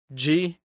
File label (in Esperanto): "gxi", esperanto; leciono1